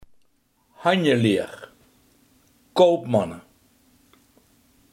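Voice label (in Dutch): handelaar, Limburgse gesproken woordenboeken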